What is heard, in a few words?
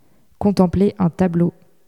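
contempler un tableau, French, male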